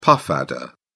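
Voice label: "puff adder", British English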